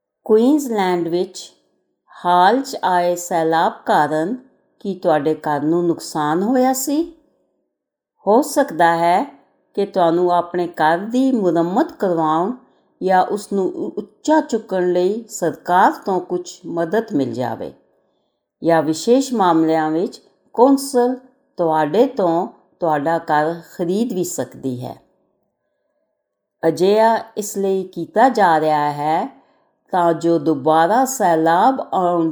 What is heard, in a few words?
punjabi